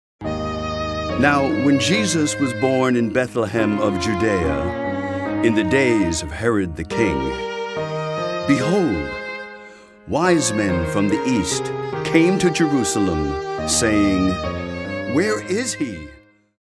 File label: Narration